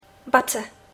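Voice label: "butter", English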